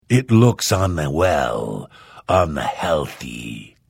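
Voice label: Hello, Vo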